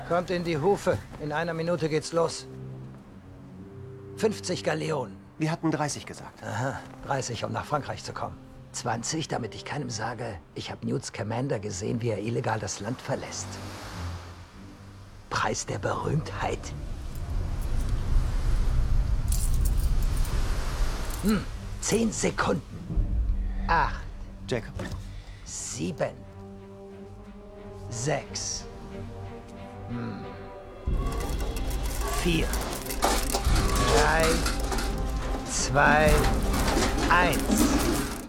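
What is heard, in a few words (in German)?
Synchron, Männer; Phantastische Tierwesen; Grindelwalds Verbrechen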